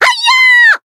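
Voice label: Voice attack Japanese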